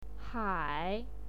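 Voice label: "hai3", Chinese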